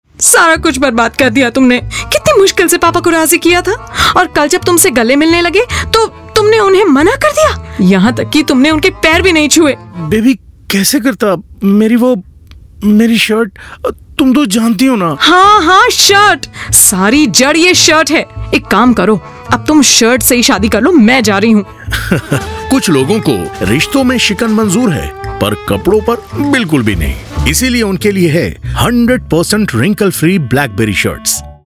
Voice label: YOUNG GIRL; Blackberry